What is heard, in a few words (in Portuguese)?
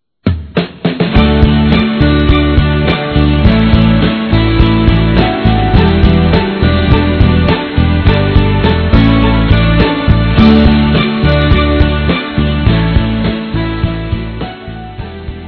Realidade Proibida Feminino; Telemensagens; Românticas